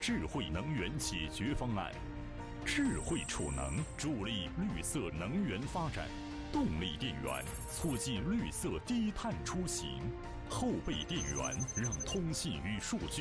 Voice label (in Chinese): 男 播出广告; CCTV2